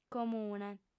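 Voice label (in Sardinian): "comune", female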